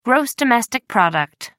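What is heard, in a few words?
"gross domestic product", British English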